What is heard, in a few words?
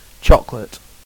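"chocolate", British English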